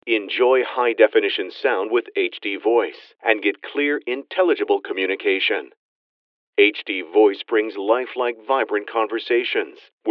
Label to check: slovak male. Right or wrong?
Wrong